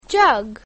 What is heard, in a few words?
"jug", English; grade1; basic sounds 1